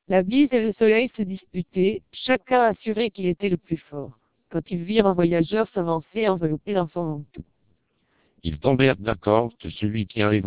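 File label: speech, French, 600 bps TWELP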